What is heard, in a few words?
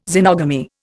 "xenogamy", Indian